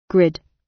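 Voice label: "grid", British; Flora and fauna, agriculture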